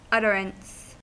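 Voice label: "utterance", American English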